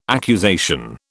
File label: "accusation", British